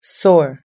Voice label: "sore", US English